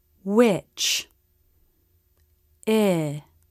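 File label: "which", English; Short i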